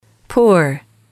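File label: "poor", English, female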